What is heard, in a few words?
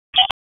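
Raleigh Police North District Tactical, p25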